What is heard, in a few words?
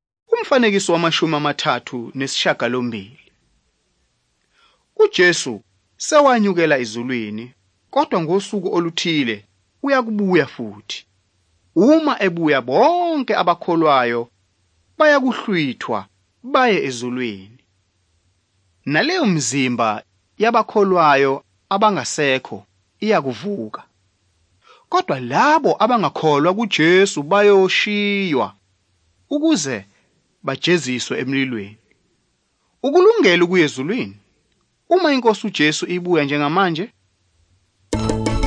zulu bible; good news